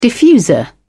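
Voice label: "diffuser", en, British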